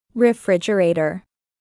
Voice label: "Refrigerator", English